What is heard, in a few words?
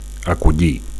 "acollir", Catalan; 1. to receive; to welcome; to accept